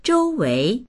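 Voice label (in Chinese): "zhou wei" Chinese; 周围; まわり、周囲; dictionary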